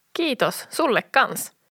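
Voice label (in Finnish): "Kiitos sulle kans", Finnish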